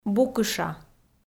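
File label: "букыша", Komi-Zyrian, female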